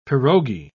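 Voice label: "pirogi", British English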